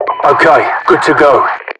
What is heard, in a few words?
good to go, Voiceovers, UK